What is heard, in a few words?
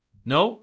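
Voice Acting Spanish, male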